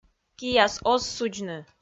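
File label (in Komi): "кияс оз судзны", Komi, female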